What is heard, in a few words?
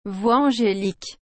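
"voix angelique", French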